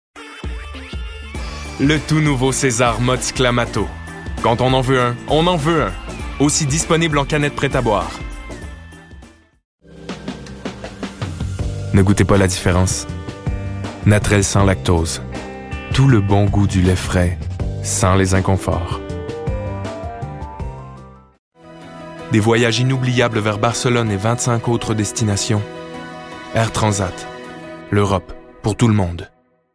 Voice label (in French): annonceur